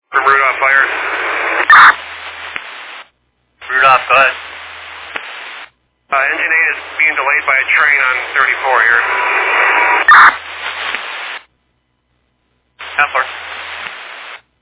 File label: Fire Dispatch